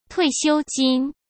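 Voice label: "退休金", Mandarin Chinese; hsk; chinese flashcards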